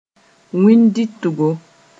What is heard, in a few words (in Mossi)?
"wĩn-dɩtgo", Moore